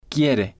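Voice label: "quiere", Spanish, male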